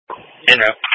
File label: Police Patrol 1 (Dispatch)